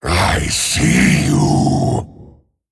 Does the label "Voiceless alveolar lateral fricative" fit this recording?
No